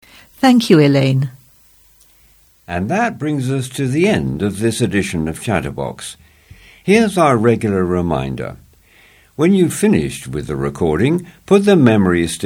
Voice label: Closing Announcement; chatterbox norwich evening news; 3rd august 2023 chatterbox edition 2233